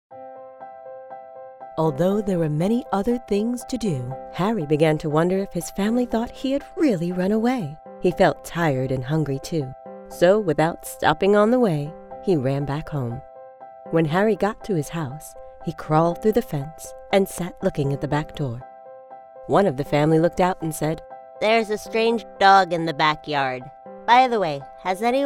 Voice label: english international